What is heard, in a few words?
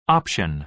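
"option", American English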